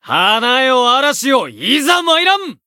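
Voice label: chant, Japanese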